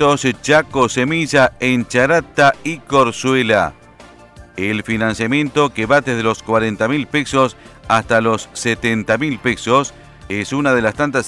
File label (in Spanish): bloque informativo